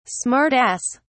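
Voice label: "smart ass", English, British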